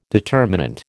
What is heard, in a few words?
"determinant", American